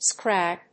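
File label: "scrag", English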